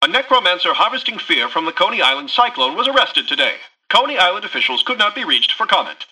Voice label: Newscaster headline